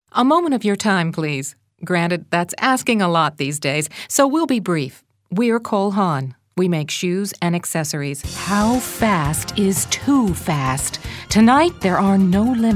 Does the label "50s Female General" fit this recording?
Yes